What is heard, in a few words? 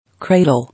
"Cradle", English